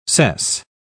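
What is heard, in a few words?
"cess", British English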